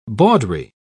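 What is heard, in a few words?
"bawdry", English, British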